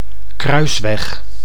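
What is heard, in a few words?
"kruisweg", Dutch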